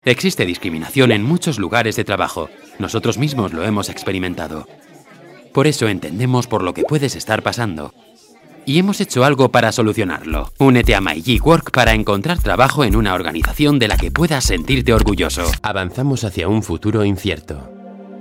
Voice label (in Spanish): castellano